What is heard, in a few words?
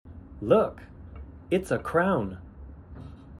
clown; kodomo newspaper